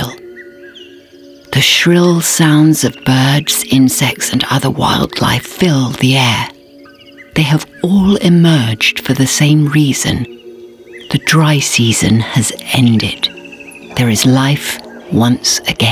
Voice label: Narration Serengeti

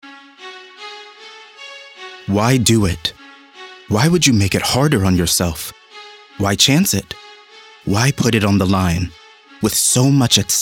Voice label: COMMERCIAL

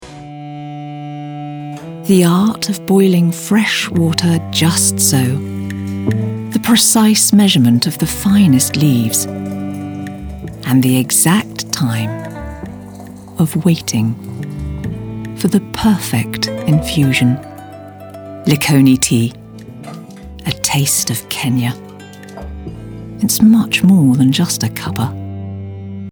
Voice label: Commercial

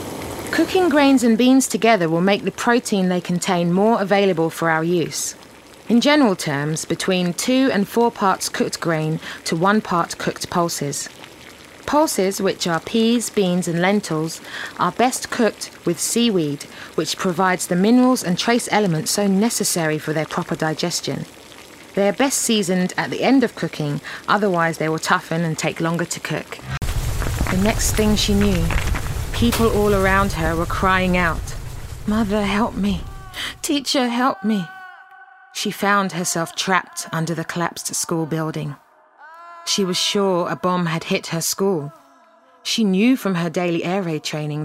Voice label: narration

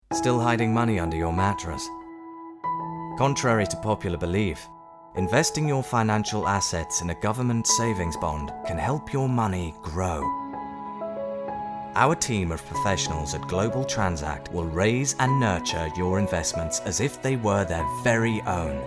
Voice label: Commercial English

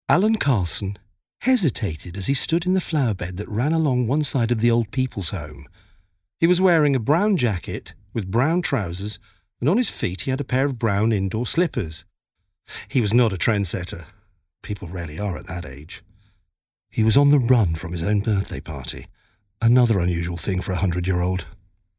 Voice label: Narration English

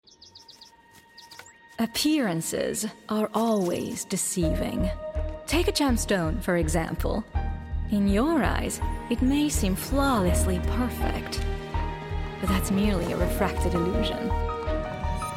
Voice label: COMMERCIAL English

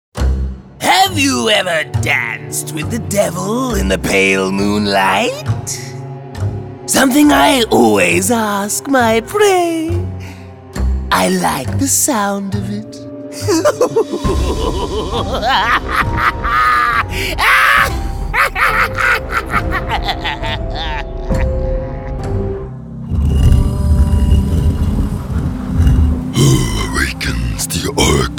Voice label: Character

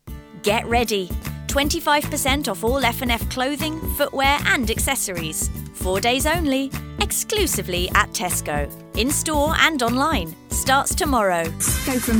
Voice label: Commercial

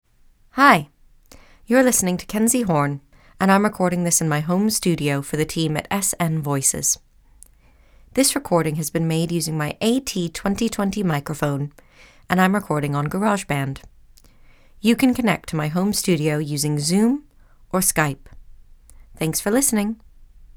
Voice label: home studio test

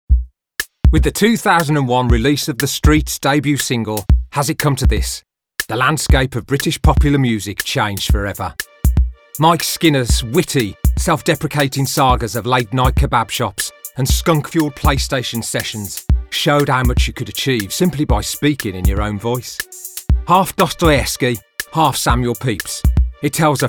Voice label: Narrative

